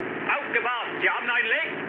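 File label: German damaged water mood low, speech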